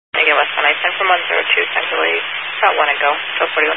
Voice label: Highway Patrol, Phoenix Metro West Area, scanner